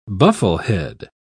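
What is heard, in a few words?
"bufflehead", British English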